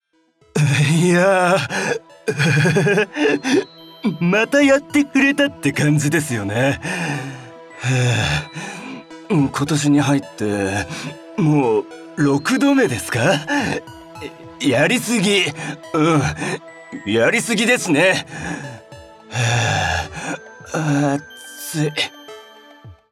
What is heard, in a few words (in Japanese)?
キャラクター 太ったオタク風